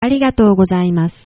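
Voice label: thanks, Japanese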